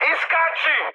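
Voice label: Serbian bailout mood high, speech; War Thunder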